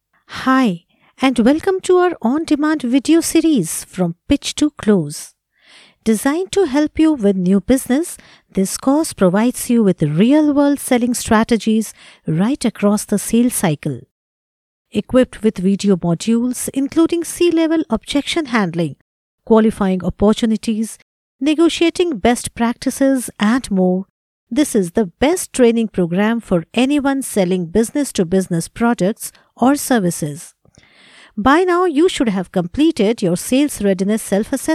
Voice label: elearn english